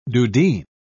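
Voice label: "dudheen", British English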